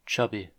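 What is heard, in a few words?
"chubby", American English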